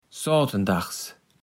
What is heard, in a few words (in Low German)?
"ssotendags", Platt